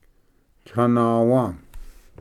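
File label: "tlahnawa", Cherokee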